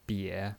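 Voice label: "bie", Mandarin Chinese, third tone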